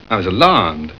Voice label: alarmed; affair to remember